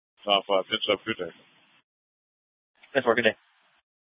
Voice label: Dispatch; Scottsdale